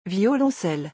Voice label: "violoncelle", French; vocabulary; musical instruments

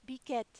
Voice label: "biquette", French

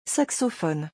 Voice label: "saxophone", French; vocabulary; musical instruments

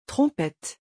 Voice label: "trompette", French; vocabulary; musical instruments

